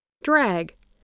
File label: "drag", spelling